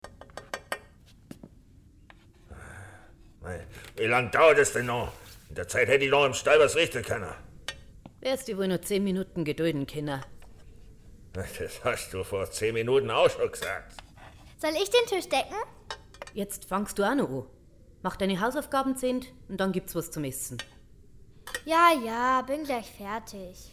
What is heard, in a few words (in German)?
Hörspiel Bayerisch; Hinterkaifeck